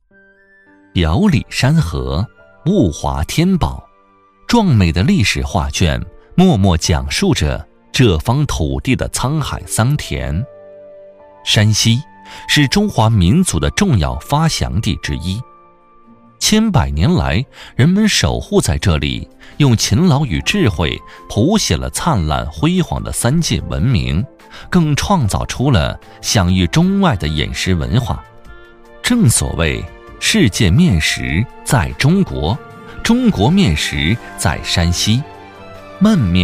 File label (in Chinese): 男 舌尖 面食